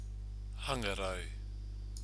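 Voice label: "hangarau", Maori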